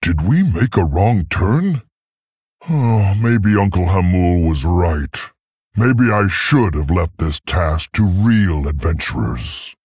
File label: Male Tauren; Guff